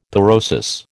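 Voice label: "pyrosis", American English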